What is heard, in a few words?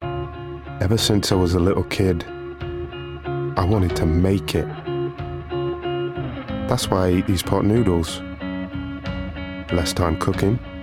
Natural Read; Pot Noodle